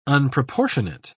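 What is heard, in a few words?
"unproportionate", British English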